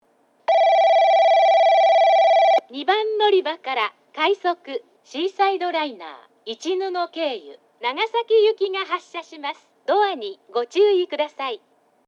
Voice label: 2番のりば発車放送; ハウステンボス; 2番のりば発車放送（快速シーサイドライナー 長崎行き）

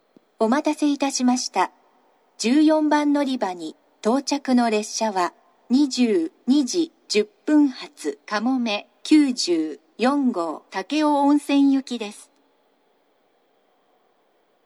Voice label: 長崎 西九州新幹線ホーム 自動放送, 14番のりば接近放送; かもめ94号 武雄温泉行き

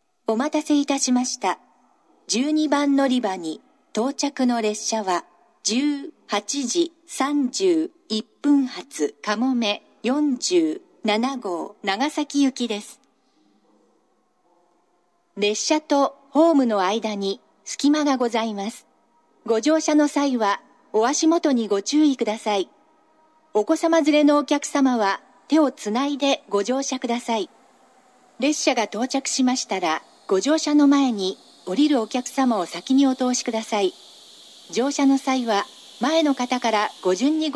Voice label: 嬉野温泉, 12番のりば接近放送; かもめ47号 長崎行き; 武雄温泉

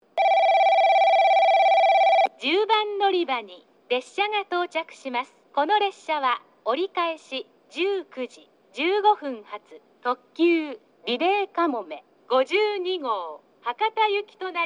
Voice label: 10番のりば接近放送; 折り返し・リレーかもめ52号 博多行き

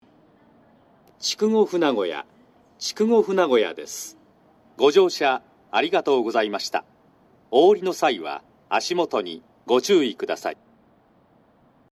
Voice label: 13番のりば到着放送 男声, 新幹線ホーム; 筑後船小屋; ・退避列車以外では、発車メロディと被ります。但し、列車が早着した場合など、稀に発車メロディと被らないことがあります。

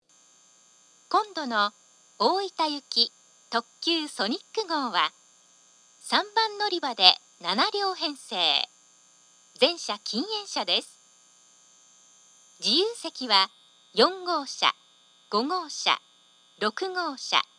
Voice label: 案内放送; 宇佐; ソニック 大分行き

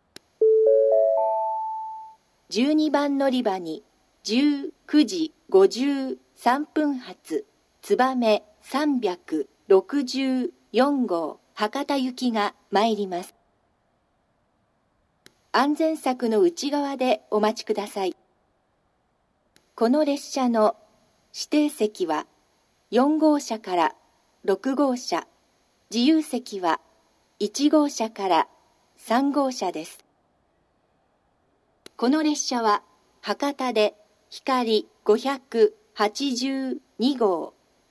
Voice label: 12番のりば予告放送, 新幹線ホーム; 新鳥栖; つばめ364号 博多行き; 博多で「ひかり582号 新大阪行き」に接続

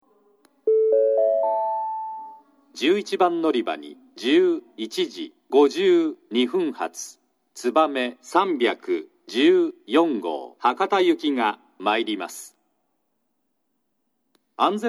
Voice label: 11番のりば予告放送（つばめ314号 博多行き）※自由席が1号車、2号車のみ, 久留米（新幹線ホーム）

